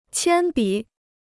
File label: "铅笔", Chinese